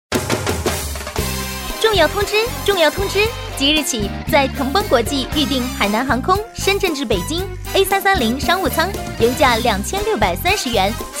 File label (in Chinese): 促销机票（动感欢快）, 声音甜美好听; 资深配音达人，声音甜美好听，适配美妆、母婴广告，风格清新自然有亲和力